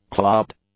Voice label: "clubbed", English; adjectives